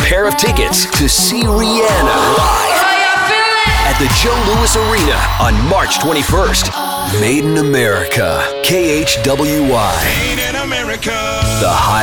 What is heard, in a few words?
Radio Imaging